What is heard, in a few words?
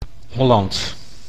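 "Holland", Dutch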